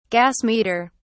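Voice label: "gas meter", British English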